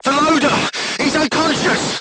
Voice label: voice message charger contused, crew tanks portuguese